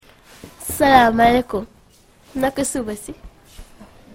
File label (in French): wolof; les langues au senegal